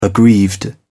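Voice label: "aggrieved", British English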